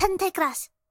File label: penta crash, vocal; Techmino; mono